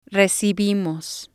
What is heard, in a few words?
"recibimos", Spanish